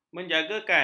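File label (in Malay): "menjagakan", Malay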